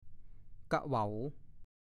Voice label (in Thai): "กะเหวา", ภาษาโคราช; น. นกดุเหว่า